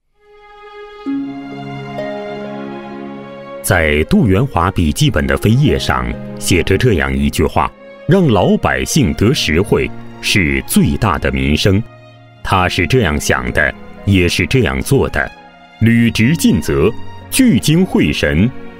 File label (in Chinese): 男 人物类专题